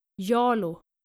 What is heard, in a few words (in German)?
"jalo", skandinavische jungennamen